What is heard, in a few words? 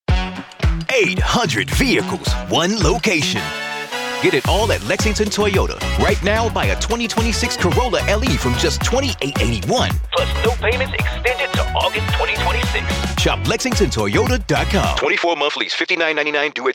english american automotive